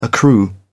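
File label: "accrue", British English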